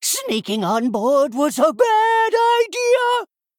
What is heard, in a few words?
Male Gnome Play